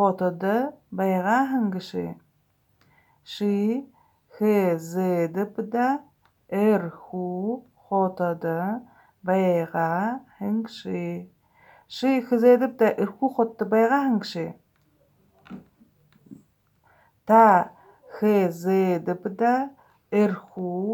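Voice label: Have you ever been to Irkutsk, Buryat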